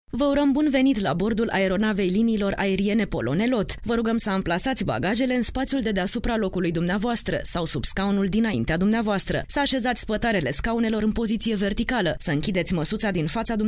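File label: Romanian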